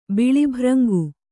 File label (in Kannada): "ಬಿಳಿಭ್ರಂಗು", Kannada